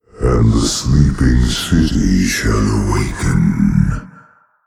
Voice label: Male Old God